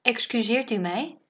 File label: excuse me, dutch